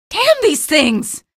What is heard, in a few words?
alert antlions, female